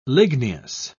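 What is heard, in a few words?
"ligneous", English, British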